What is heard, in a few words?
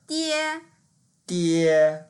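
"die1", Mandarin Chinese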